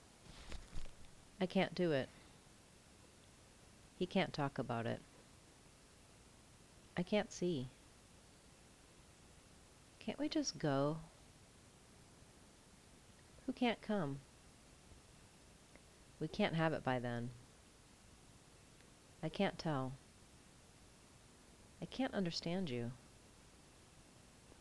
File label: unstressed cant